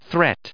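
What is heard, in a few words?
"threat", English, us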